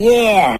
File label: "yeah" cartoon, voice